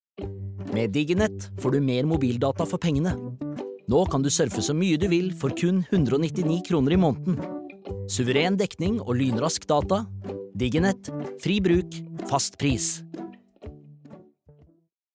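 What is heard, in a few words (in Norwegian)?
Norwegian, advert; reklame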